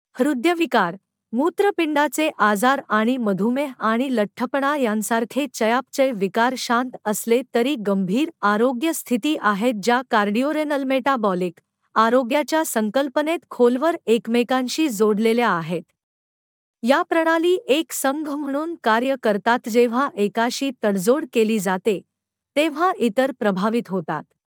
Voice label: Female Marathi

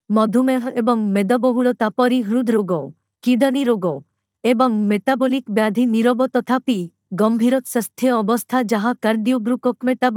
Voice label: Female Odia

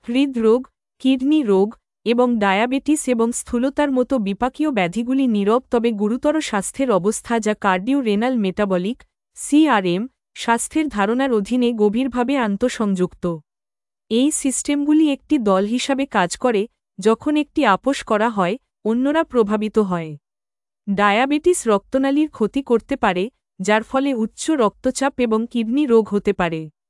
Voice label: video Female Bengali

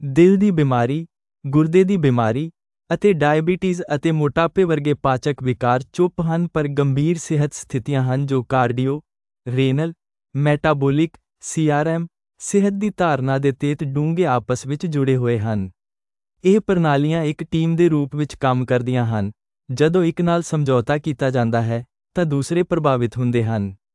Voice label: Male Punjabi

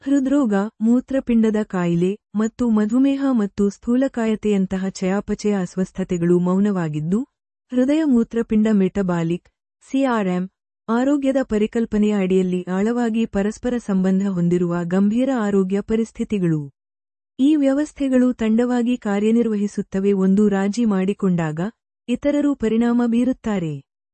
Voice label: video Female Kannada

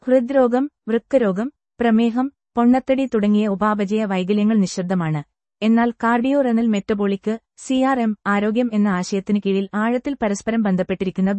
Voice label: Female Malyalam

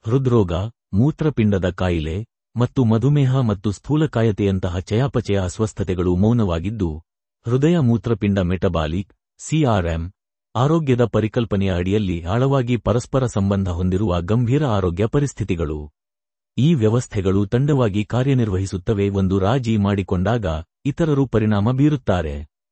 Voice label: video Male Kannada

